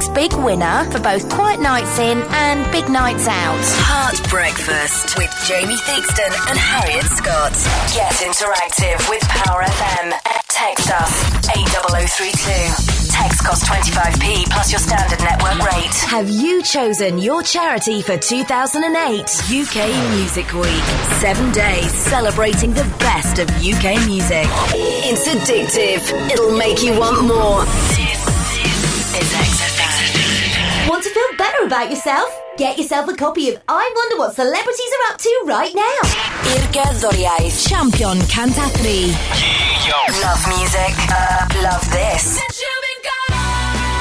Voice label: radio